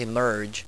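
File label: "emerge", English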